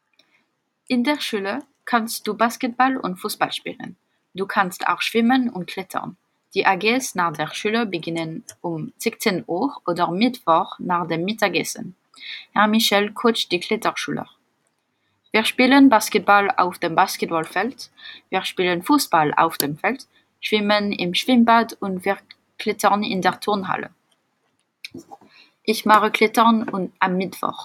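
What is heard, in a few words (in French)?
Deutsch, présentations réalisées par nos élèves de 4ème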